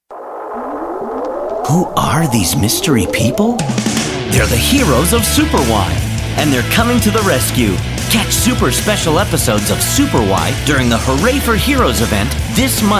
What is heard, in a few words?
Promo